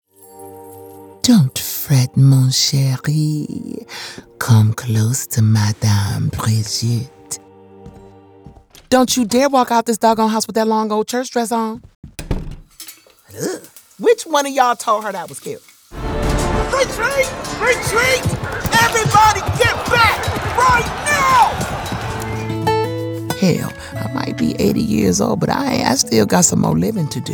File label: Character